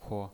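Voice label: "kuo", pinyin, Mandarin Chinese, neutral tone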